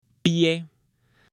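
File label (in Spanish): "pie", Spanish, male; CUERPO; AppLenguaje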